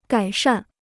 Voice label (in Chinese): "改善", Chinese